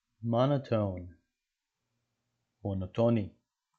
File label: monotone – מונוטוני